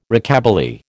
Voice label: "Rockabilly", American